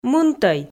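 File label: "мынтӧй", Komi, female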